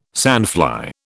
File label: "sandfly", British